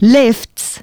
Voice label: female, announcements

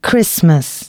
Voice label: announcements female

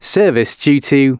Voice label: announcements, male

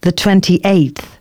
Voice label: announcements female

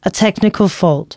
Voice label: announcements, female

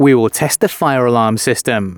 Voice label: announcements male

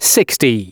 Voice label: announcements male

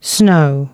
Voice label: announcements, female

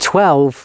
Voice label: announcements male

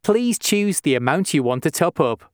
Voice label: Ch Top Up, announcements male

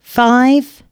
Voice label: announcements female

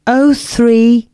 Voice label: announcements female

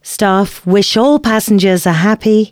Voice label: announcements female

